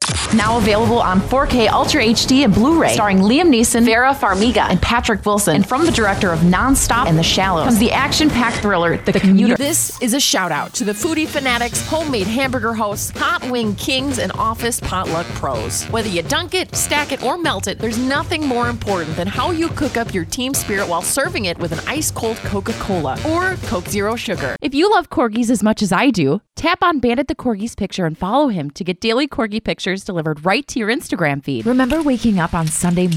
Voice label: commercial aircheck